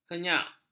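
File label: "henyak", Malay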